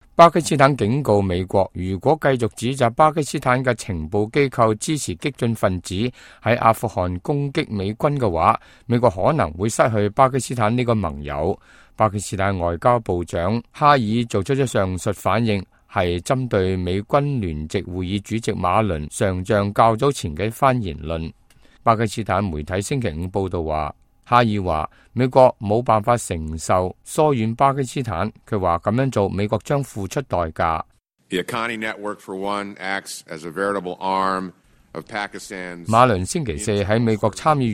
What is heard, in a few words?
cantonese, news